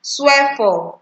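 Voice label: "swear for", Nigerian Pidgin, female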